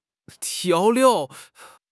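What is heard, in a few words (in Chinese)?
"调料", Chinese; HSK6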